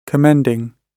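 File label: "commending", British English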